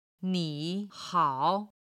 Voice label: "ni3 hao3", chinese

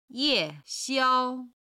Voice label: "ye4 xiao1", chinese

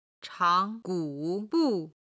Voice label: "chang2 gu3 bu4", Chinese

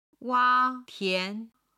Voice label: "wa tian", chinese

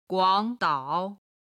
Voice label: "guang3dao3", chinese; chinese travel

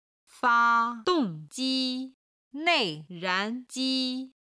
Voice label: "fa1 dong4 ji1" "nei4 ran2 ji1", Chinese

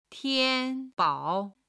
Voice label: "tian1 bao3", Chinese

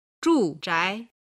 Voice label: "zhuzhai", Chinese

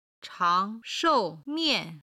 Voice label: "changshoumian", Chinese

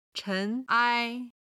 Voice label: "chen2 ai1", chinese

